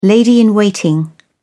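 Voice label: "lady-in-waiting", British English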